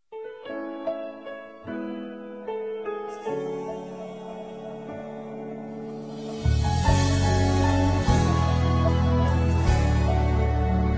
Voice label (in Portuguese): aniversario romantico picante, voz feminina; Telemensagem de Aniversário Romântico